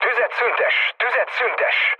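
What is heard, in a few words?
Hungarian player friendly fire mood low, speech; War Thunder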